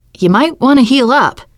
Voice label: suggest health, teengirl, voice; player; survivor

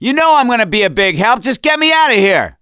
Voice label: call for rescue, survivor voice; gambler

